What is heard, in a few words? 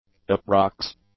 "approx", English; adjectives